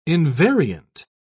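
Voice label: "invariant", British English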